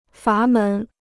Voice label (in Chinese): "阀门", Chinese